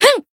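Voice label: attack, Japanese; kikyou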